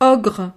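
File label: "ogre", French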